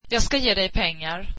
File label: swedish I will give you money